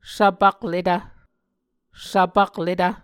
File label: "svpakletv", Muscogee